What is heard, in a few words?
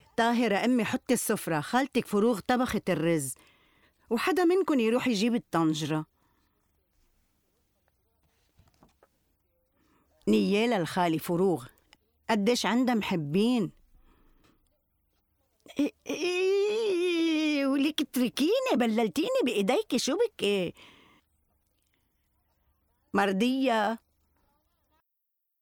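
arabic female narration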